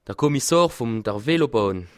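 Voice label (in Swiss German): "velobahn", Bas Rhin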